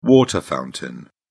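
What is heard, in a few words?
"water fountain", British English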